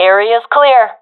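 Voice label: sees area clear, professional female; vo; Counter Strike Model Pack; CS:GO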